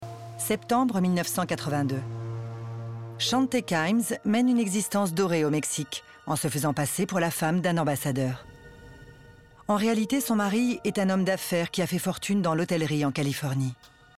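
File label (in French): femmes diaboliques, voix off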